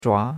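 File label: "zhua2", Chinese syllable, second tone; Cours-de-Chinois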